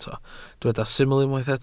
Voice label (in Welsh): "syml" Welsh